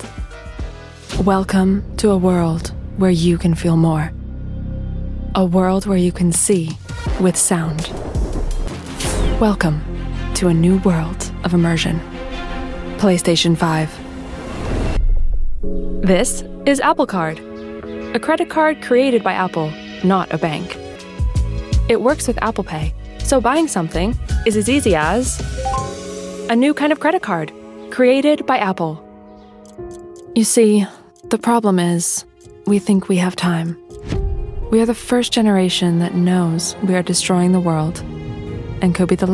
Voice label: Commercials, american